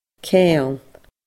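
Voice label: "ceangal", Scottish Gaelic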